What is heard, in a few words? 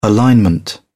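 "alignment", British English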